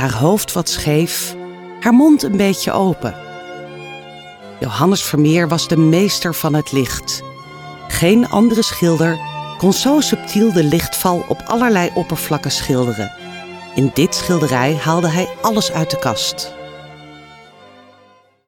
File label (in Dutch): Audiotour, voice over; Meisje met de Parel